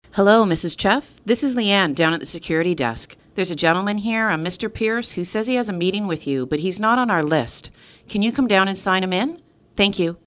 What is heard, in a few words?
voicemail, signing in